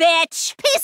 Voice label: swearing excessively